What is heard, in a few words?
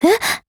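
MOOD, Japanese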